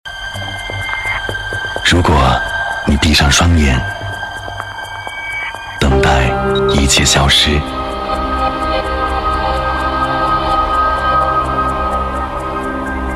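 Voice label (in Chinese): 国语 男声 旁白 内心、自然、磁性 低沉 调性走心 感人煽情 素人; 特色小镇计划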